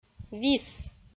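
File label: "вис", Komi, female; audio dict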